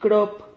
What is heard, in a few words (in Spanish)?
"crop", Silabas